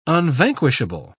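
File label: "unvanquishable", English, British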